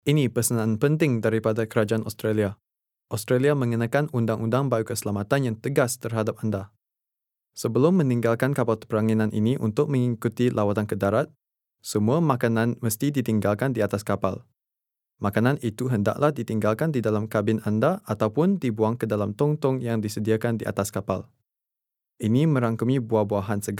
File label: shore exc malaysian, passenger announcements; cruise vessels